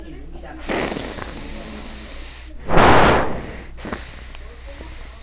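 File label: "ring", spoken word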